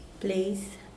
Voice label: plate, Mokilese